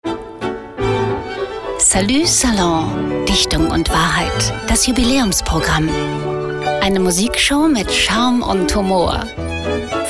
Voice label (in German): Freundlich